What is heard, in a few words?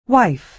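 "wife", American English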